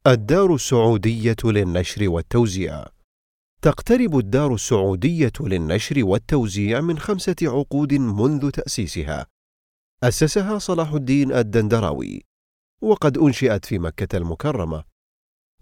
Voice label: Arabic